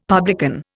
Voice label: "publican", Indian; Dictionary